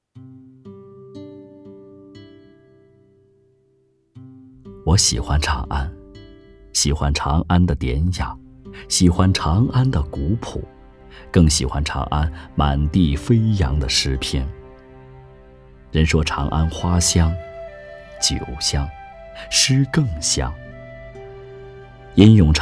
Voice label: 美文 内心独白; 美文-长安

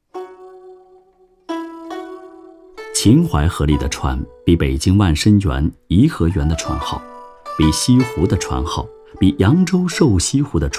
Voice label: 专题片 娓娓道来; 城市-秦淮河

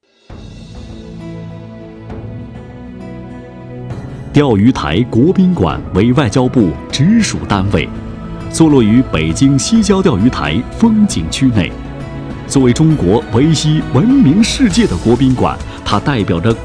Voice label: 专题片(大气力度); 钓鱼台国宾馆

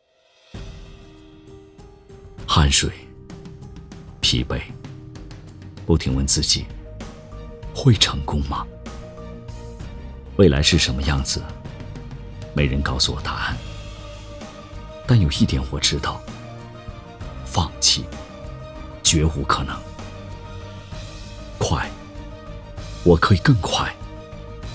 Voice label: 广告 励志风格; 鸿星尔克

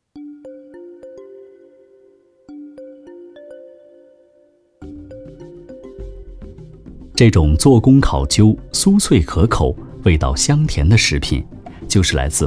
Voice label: 纪录 美食 琼锅糖

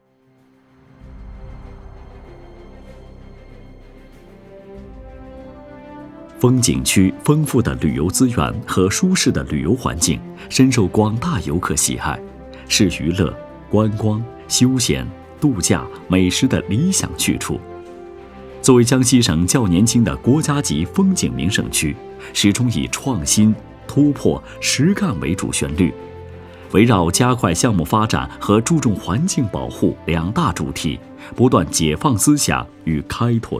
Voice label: 企业宣传 风景区 大气自然

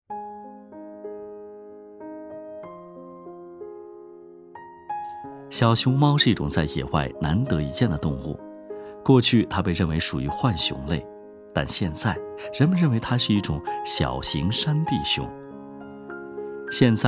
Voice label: 纪录 轻快; 动物-熊猫

